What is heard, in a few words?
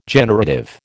"generative", American